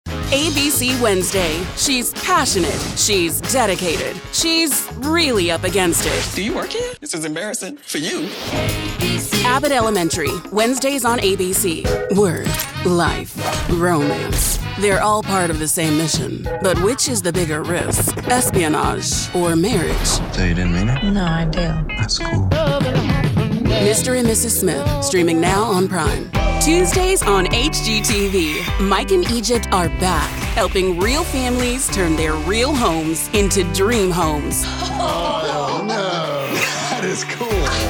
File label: Promo, Female Voice Over